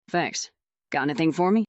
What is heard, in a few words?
fem